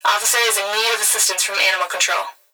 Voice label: Emergency Human Female Voice Police Radio Officer Is In Need Of Assistance From Animal; Animal Control